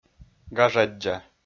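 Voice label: "гажаддза", Komi, male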